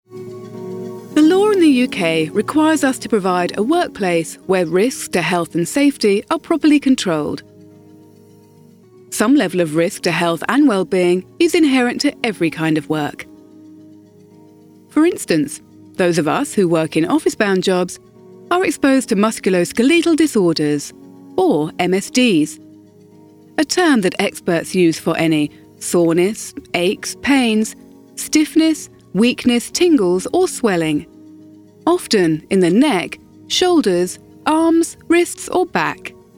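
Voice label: Elearning, voiceover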